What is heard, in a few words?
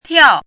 "tiao4", chinese; chinese-voice